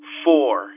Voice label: "for" phone, phonemes

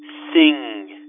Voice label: "sing" phone, phonemes

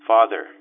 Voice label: "father" phone, phonemes

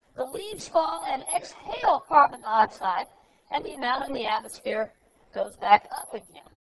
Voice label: to female, speech warping; algore

